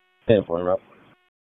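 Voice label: Raleigh Police Southeast District Dispatch; wake p25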